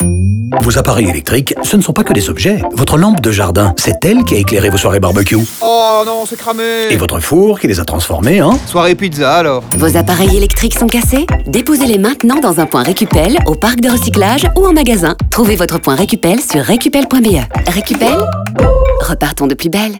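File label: radio music intro, french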